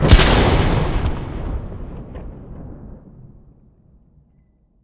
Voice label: shout unrelenting force